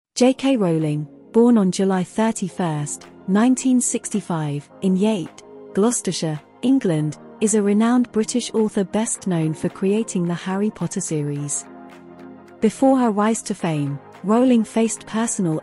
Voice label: Reading; Unit 1 pagina 8; JK Rowling; ingles3 bachillerato